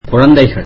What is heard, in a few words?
tamil children